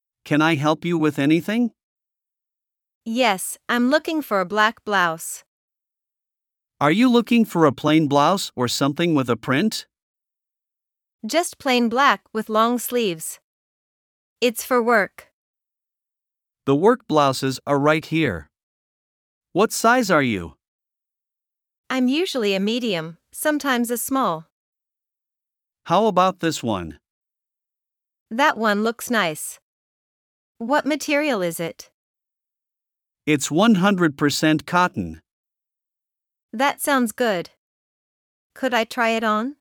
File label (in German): Dialog; Kleidung einkaufen